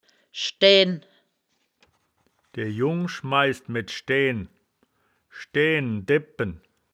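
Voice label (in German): "schdaeaen", Wittlicher Wörterbuch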